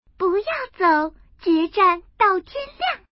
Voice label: woman; mahjong ningxia